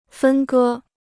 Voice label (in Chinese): "分割", Chinese